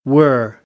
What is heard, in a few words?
"were", American English; ɝː - ɜː